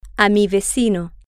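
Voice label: Spanish, to my neighbor, female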